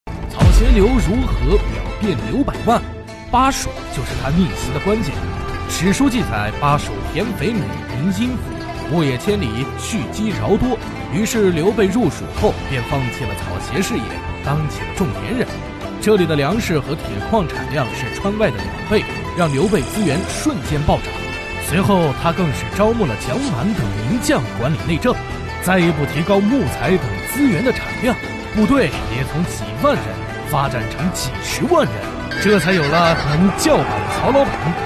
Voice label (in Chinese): 广告手游广告